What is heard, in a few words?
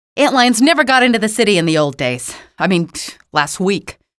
alert antlions, female; hl2; ep1